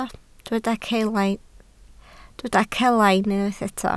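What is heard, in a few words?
"celain" Welsh, spoken word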